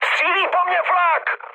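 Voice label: damaged aa, Czech, mood high; War Thunder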